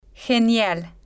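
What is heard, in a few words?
"genial", Spanish, female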